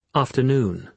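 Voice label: "afternoon", British English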